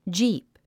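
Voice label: "jeep", English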